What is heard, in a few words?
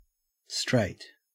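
"straight", Australian English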